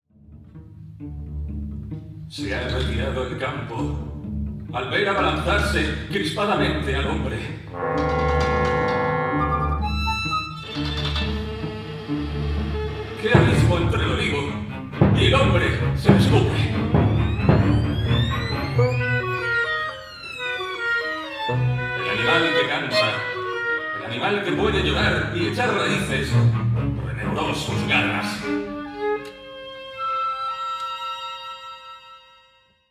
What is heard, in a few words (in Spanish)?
Narrador; El hombre acecha